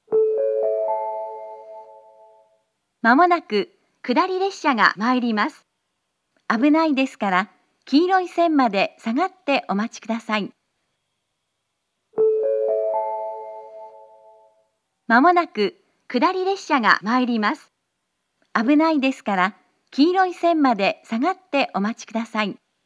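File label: platform 3 approaching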